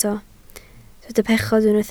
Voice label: "pechod", Welsh